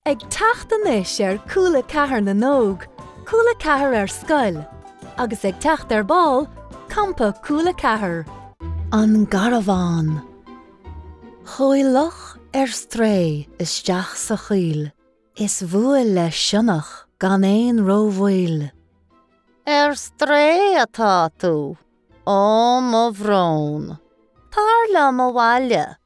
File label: gaelic ireland commercial